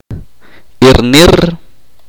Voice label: "ver", chorti